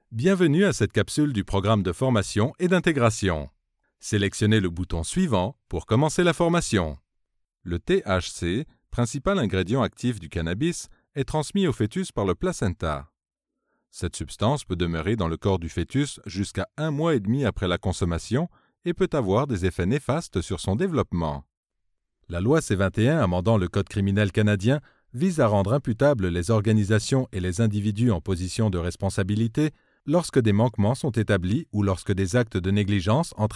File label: E-learning